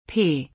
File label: The letter P in German